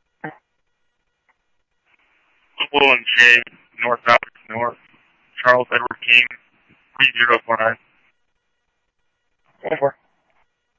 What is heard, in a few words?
Scottsdale Police, scanner audio